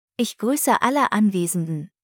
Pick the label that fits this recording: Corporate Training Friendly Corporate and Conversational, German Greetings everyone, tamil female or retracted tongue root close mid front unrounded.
German Greetings everyone